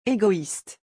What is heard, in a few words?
"goïste", French